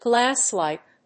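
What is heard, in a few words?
"glasslike", English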